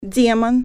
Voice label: demon, russian

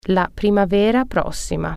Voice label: next spring, italian

